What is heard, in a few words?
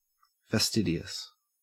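"fastidious", Australian English; pronunciations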